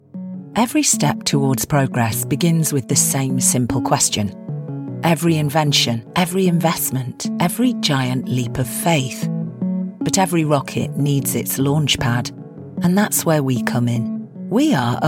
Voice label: cinematic reel